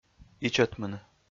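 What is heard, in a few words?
"ичӧтмыны", Komi, male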